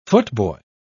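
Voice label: "footboy", British English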